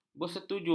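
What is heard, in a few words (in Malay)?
"bersetuju", Malay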